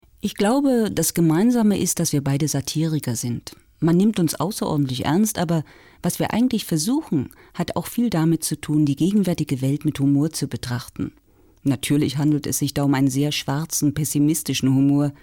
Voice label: Kommentar

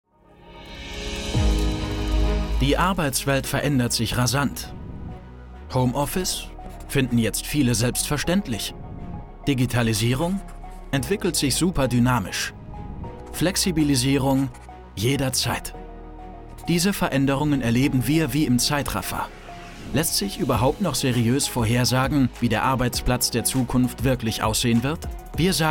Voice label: Imagefilm, Commercial (Werbung), German; Canon

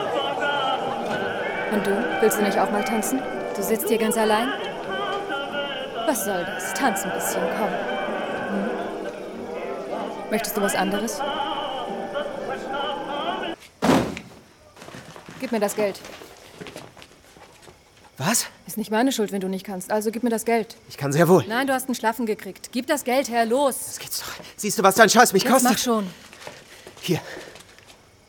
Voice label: Mädchen; Frühes Versprechen

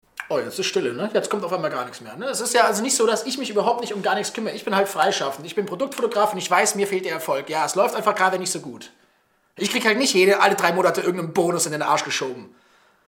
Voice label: Eifersuechtig

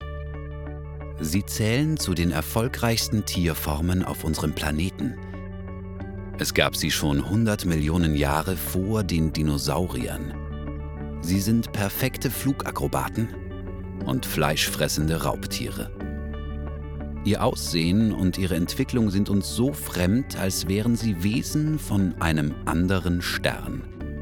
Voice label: Doku

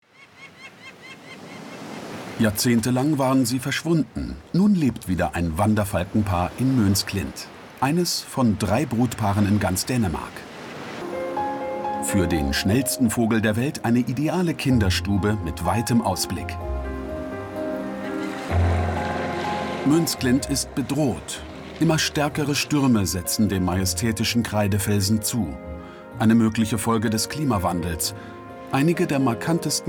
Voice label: kommentar

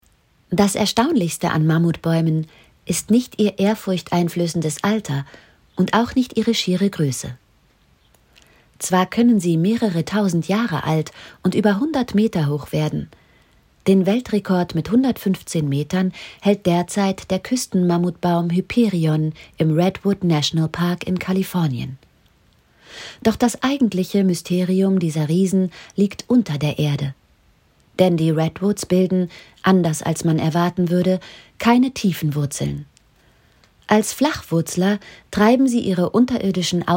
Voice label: Sachbuch, Doku, German; Dokumentation über Redwood trees

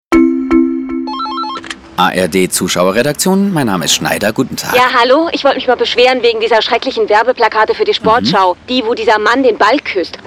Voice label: Funkspot; ARD Sportschau; Beschwerde; LOFT VOICES

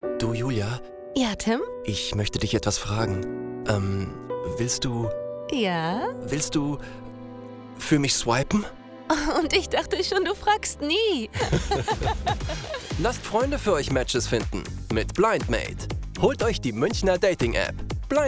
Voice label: Werbung de Dating szenisch off humorvoll romantisch; BlindMate

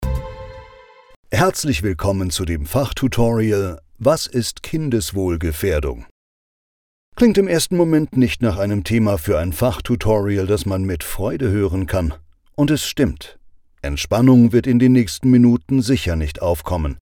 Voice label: Fachtutorial; LOFT VOICES

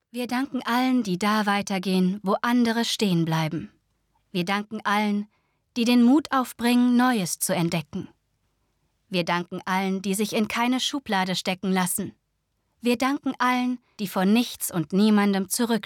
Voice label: Werbung Versicherung

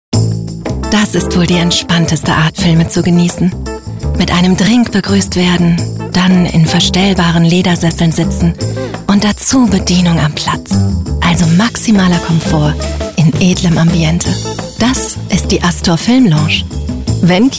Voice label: Werbung Funk; Astor

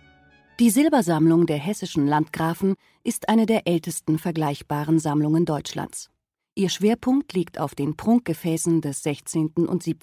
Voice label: Willkommen Audiofuehrung

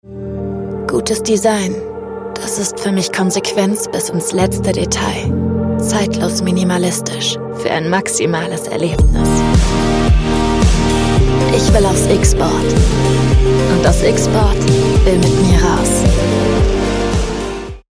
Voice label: Spot Zeitlos Minimalistisch; LOFT VOICES

